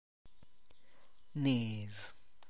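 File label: road, Tlacochahuaya Zapotec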